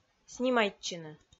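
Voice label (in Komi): "снимайтчыны", Komi, female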